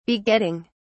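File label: "begetting", en, uk British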